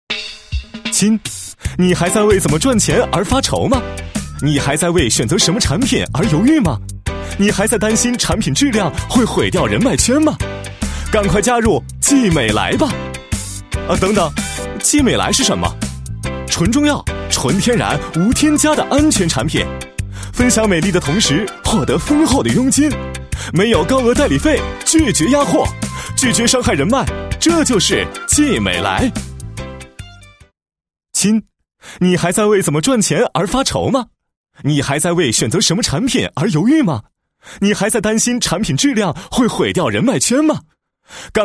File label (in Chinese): 男 产品介绍, 国语男声配音, 浑厚; 浑厚专题; 飞碟说; 季美来